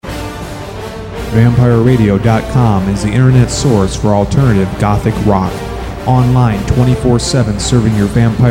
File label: Radio Station Ad